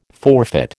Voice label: "forfeit", American